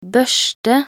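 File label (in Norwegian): "børste", Norwegian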